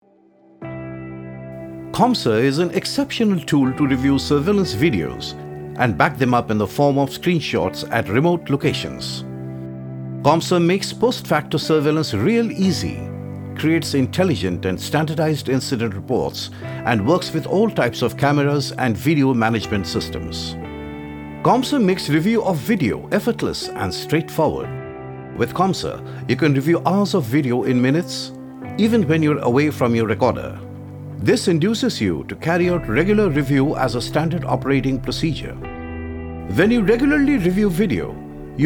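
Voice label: Explainer English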